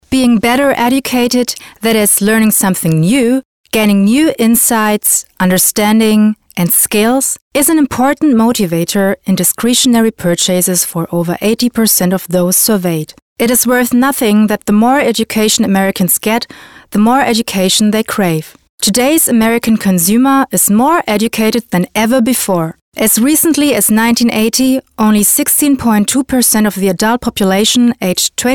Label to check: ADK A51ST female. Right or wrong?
Wrong